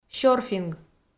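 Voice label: "сёрфинг" Komi, female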